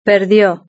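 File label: "perdió", Spanish; verb-conjugator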